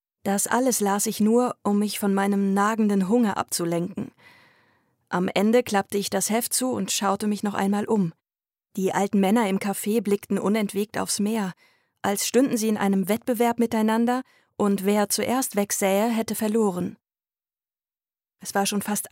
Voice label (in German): Hoerbuch